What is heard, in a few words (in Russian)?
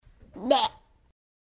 rebenok govorit "bee"